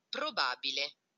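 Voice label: probabile, Italian; Speechling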